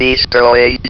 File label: "DESTROYD", votrax; VIDEO GAMES